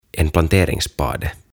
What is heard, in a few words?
"En planteringsspade" "Istutuslapio"